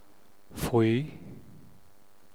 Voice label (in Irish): "faoi", gaeilge